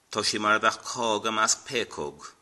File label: idiom, irish